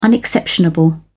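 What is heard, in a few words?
"unexceptionable", British English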